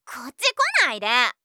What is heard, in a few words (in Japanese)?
"こっちこないで！", 相づち・反応系【ネガティブ】; ASMR